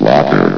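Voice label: "locker", announcer voice; Source Engine; bshift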